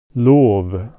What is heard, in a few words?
"tack", swedish